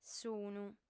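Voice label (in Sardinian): "sunt", female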